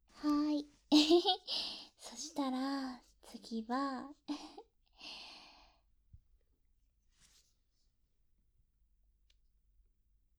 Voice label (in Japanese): オナニー鑑賞(実演) バイノーラル